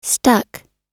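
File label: "stuck", American English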